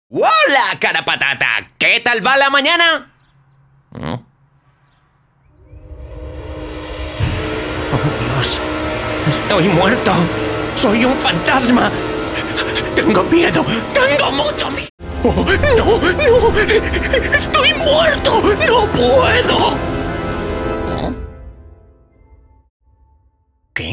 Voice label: acting Cartoon Comedy Drama screaming I belive I was dead